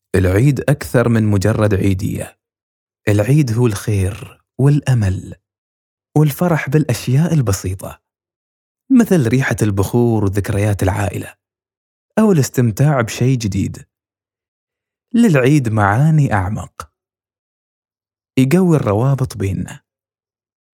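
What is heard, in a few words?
Arabic, Narration, Saudi Accent; GoLocalise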